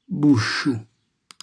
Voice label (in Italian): "busciu", calabrese; buco; cucinacalabrese; glossario